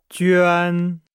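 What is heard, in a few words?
"juan", Chinese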